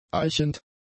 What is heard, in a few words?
"eichend", german; adjectives